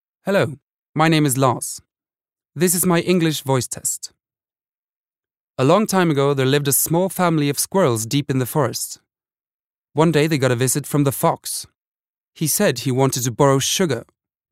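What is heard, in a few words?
Norwegian english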